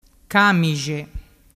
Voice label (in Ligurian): "camixe", dialettu